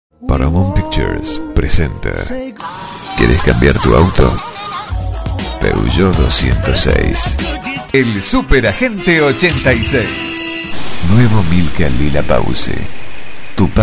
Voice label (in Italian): Voce Spagnola